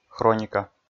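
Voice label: "хроника" Komi, male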